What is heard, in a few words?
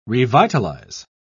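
"revitalise", British English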